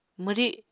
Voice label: "mwri", Apatani